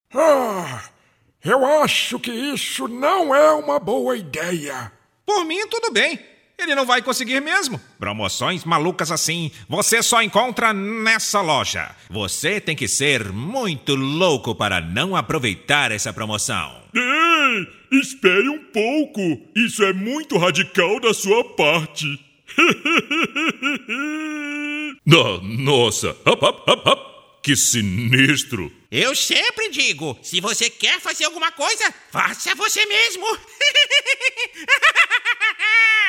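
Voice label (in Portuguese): Masculino, Português - Brasileiro, Vozes Caricatas, Voz Caricata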